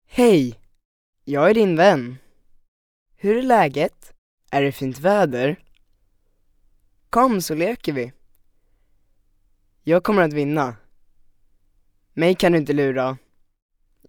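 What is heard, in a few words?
Swedish man 53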